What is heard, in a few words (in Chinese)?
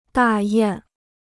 "大雁", Chinese